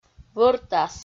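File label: "вӧртас", Komi, female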